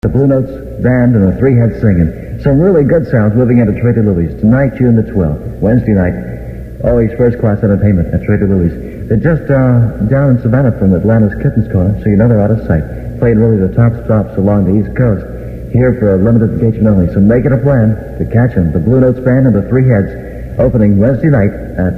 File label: radio promo